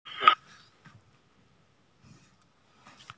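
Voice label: "cao3", Mandarin Chinese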